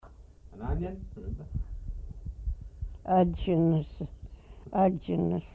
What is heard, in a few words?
onion, Twana